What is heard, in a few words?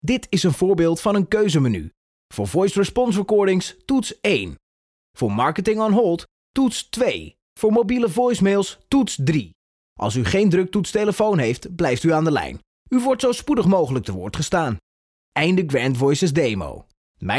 DUT M